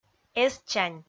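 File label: "эстчань", Komi, female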